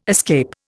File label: "escape", Indian